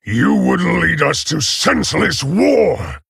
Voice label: Male Orc; Rehgar; Garrosh

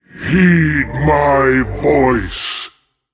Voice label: Male Demon Hero Power; MalGanis; Uther

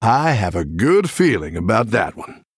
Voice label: Male Human Recruit Small Minion

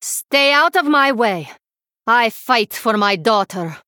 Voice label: Female Draenei Idle; Scabbs Mission 8 Xyrella Idle

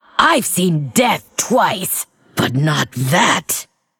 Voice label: Female Lich Wow

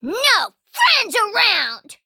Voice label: Female Imp Attack

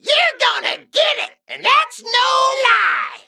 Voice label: Mech Threaten; Blingtron

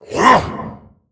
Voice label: Male Green Wyrm Attack

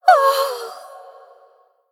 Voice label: Female Draenei Death

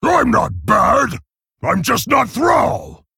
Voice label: Male Orc Emote

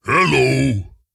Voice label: ogre greetings; Boulderfist

